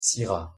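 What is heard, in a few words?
pronunciation french syrah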